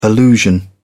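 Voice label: "allusion", British English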